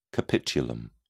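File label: "capitulum", English, British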